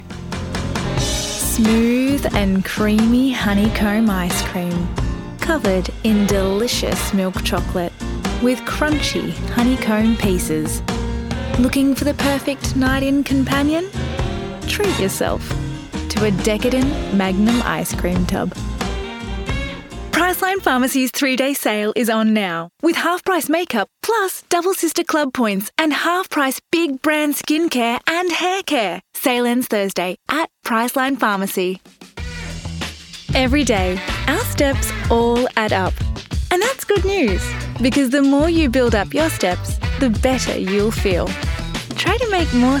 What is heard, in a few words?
Commercial